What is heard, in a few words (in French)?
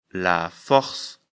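"force", French; afrikalan; lecture fr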